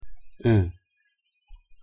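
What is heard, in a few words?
"ㅡ", Korean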